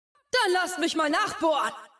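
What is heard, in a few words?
German; 探奇（守望先锋2）; 终极技能; 德语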